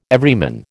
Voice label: "Everyman", American